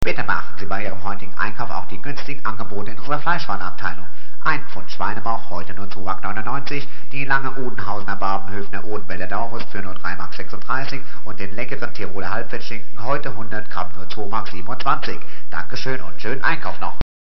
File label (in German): Ansage